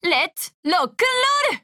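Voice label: voice over groove SKILL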